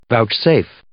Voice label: "vouchsafe", English